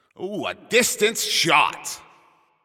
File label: Announcer Long Hit To Other Side